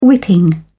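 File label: "whipping", British English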